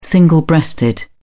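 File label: "single breasted", British English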